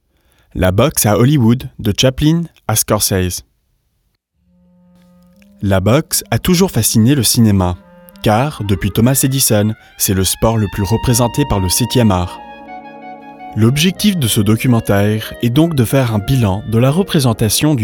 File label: french documentaries